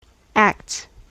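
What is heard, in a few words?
"acts", US English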